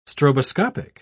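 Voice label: "stroboscopic", British English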